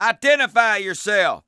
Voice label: detect guard, English Voice

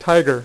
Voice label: "TIGER", Dealer's Speech; PowerPOKER Demo

